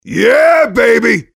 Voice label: mobster positive vocalization